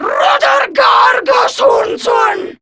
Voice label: Attack, Female; Warframe; Grineer Female; Battle Barks

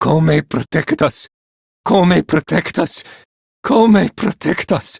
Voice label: Villagers Male, Cetus Invasion

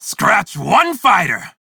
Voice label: Crew Gun Kill Fighter, Male; Dialog; en; Warframe; Railjack Crew; Red Veil

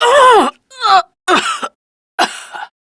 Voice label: Death, Female; Dialog; en; Warframe; Railjack Crew; Steel Meridian

